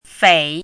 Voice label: "fei3", pinyin